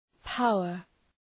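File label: "power", English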